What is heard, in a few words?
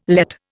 "Lett", Indian